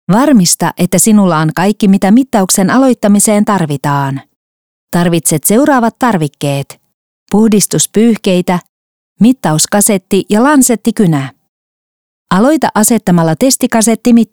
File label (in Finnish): Finnish e-learning